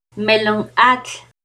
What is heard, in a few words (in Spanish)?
agua de melon-melonatl, Náhuatl; NAHUATLAHTOLLI